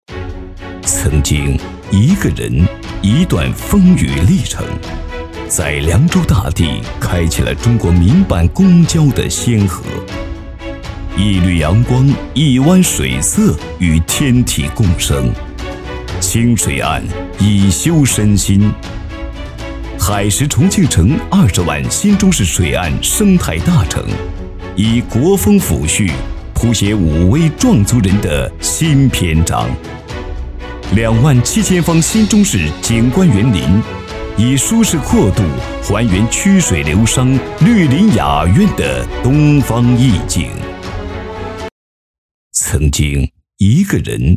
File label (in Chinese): 男 宣传片 海石景观